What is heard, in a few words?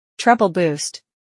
Treble Boost; en US